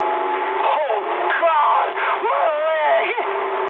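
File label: damaged pilot mood high, speech English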